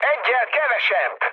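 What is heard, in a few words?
player killed enemy, mood med, Hungarian; speech; War Thunder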